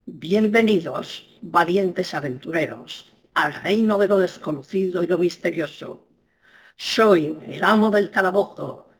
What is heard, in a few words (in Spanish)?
voz amo anciana; galeria sonora